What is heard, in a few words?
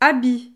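"habit", French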